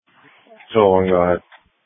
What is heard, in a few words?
Police Dispatch